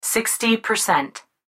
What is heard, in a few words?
Sci Fi Voice, Sci Fi Computer Voice Female 60 Percent; Voice Clip; Blastwave